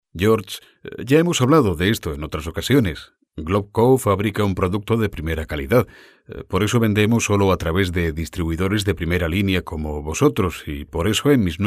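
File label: euro spanish voice over training; ibm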